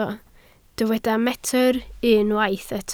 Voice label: "metr", Welsh